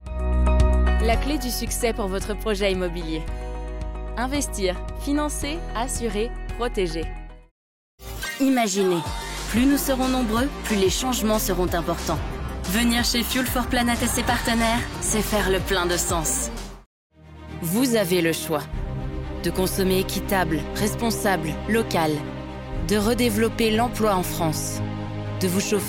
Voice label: french corporate videos; dubladora profissional francesa